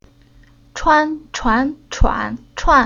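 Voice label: "Chuan", Chinese syllable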